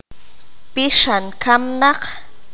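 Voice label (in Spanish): "Espíritu del muerto", qanjobal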